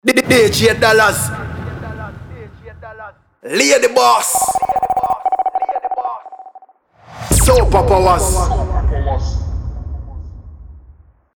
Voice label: dj name tag, Jamaican male accent; voice tags for djs name drops